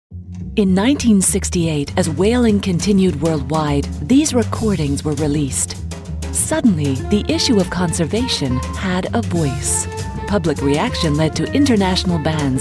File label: mid-atlantic